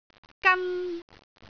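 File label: "gan1", Cantonese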